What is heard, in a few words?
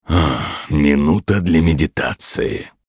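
Hero Idle Russian, Мужчина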